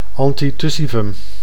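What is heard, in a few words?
"antitussivum", Dutch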